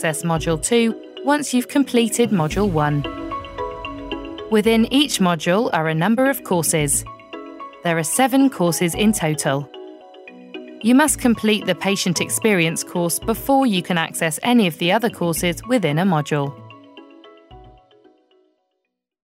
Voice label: e Learning

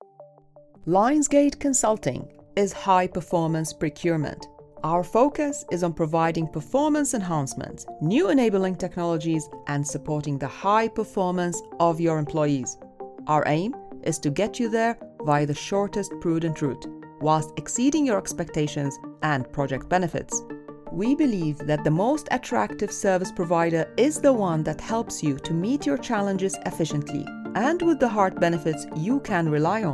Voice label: Corporate

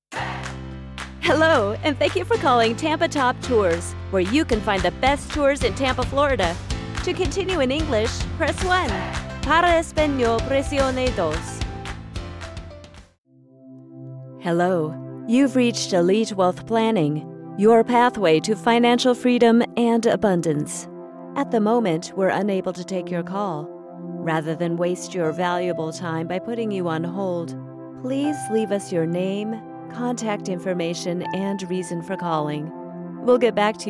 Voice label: IVR

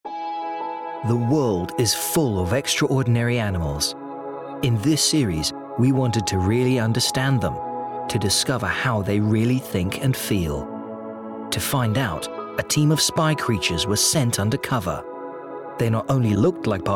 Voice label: Documentary

